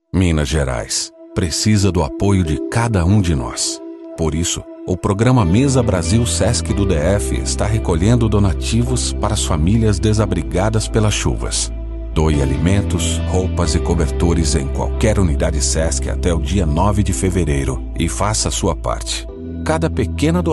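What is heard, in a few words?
narr, male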